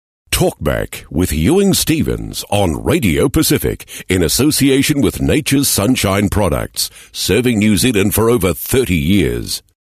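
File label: radio pacific liner, Voice Over New Zealand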